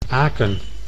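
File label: "Aken", Dutch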